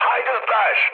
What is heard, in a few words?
enemy detected, mood high, speech tur